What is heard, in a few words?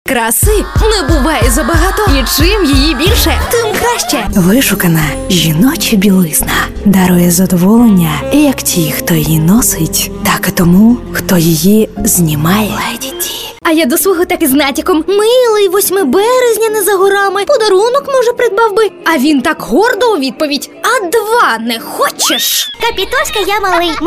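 Ukrainian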